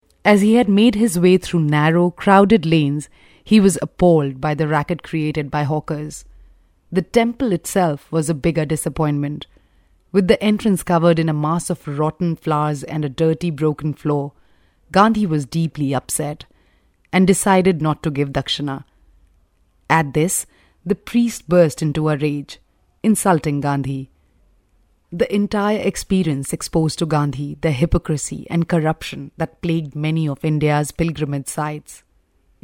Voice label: female voice English VO

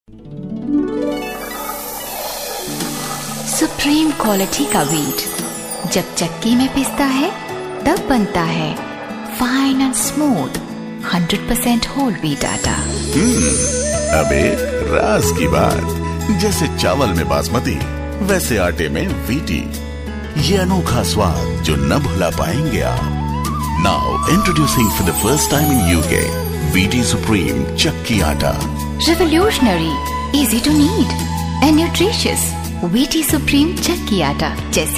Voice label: female voice hindi

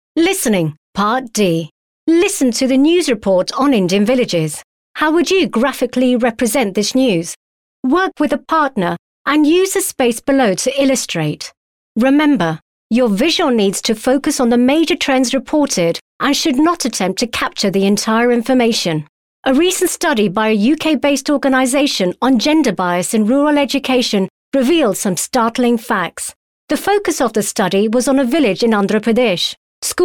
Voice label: sample eng, female voice

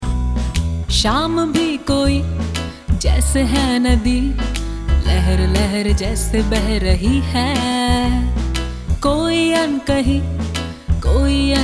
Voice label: female voice

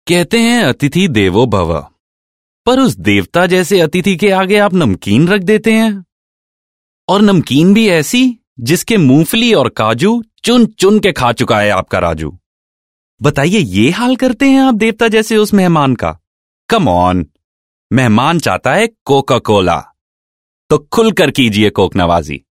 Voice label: Hindi, male voice

